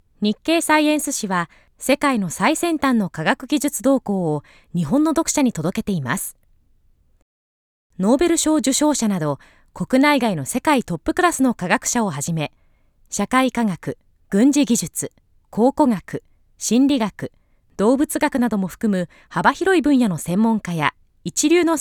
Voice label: elearning

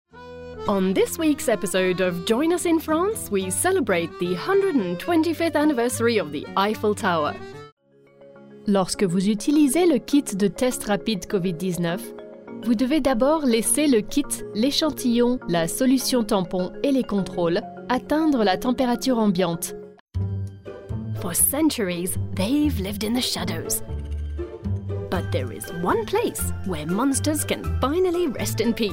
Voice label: English French Mixed

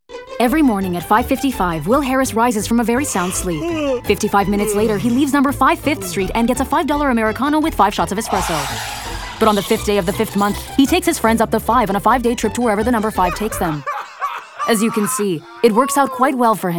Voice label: Commercial EN-CA

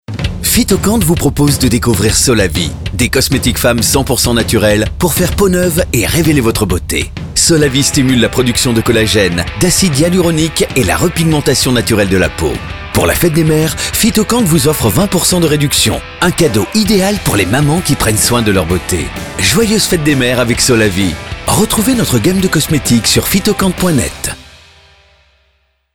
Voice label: spot; PhytoQuant; solavie

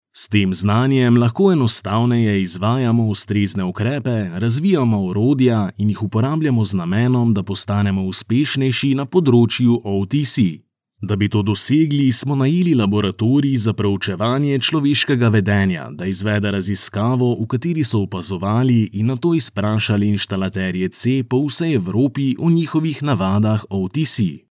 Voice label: e-learning; OTC

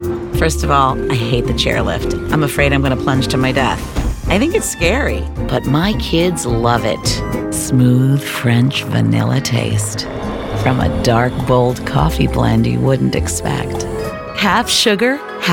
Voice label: commercial

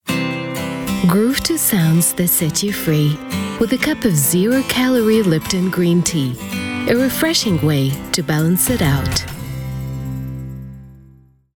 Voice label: American English Banner Ad; Lipton Green Tea

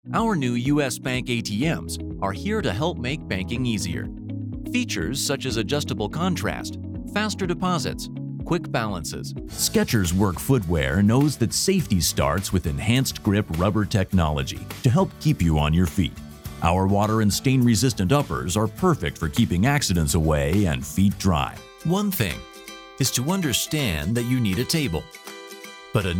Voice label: Commercial Compilation

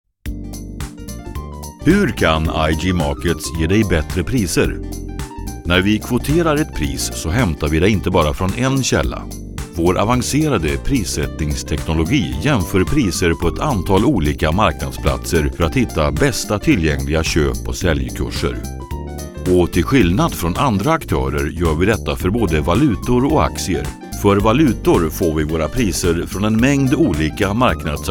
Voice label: Web Tutorial; IG Markets

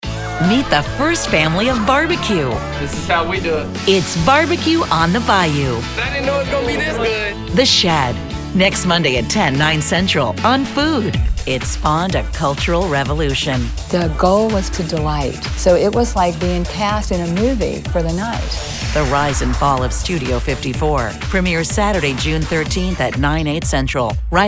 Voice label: promo VO

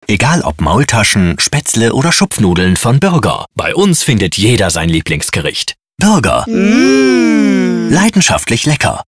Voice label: Spot

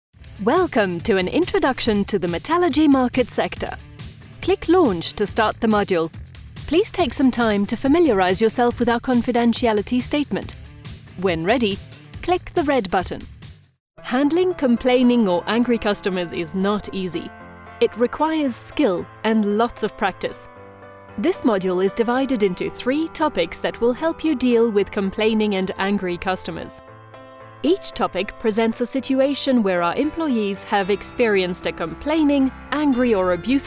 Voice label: English e-learning training samples

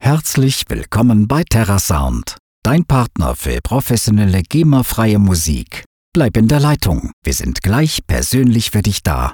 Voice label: Phone System; Terrasound

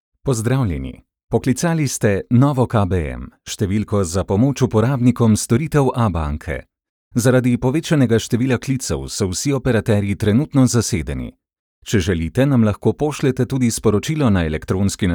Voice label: IVR sample

